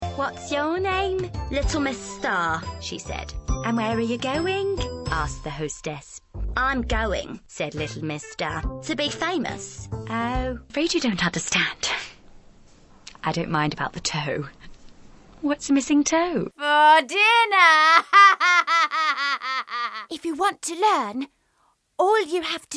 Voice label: character